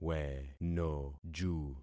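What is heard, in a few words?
"wenoju", concatenated syllables; Affixing experiment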